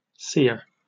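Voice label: "sere", British English; pronunciations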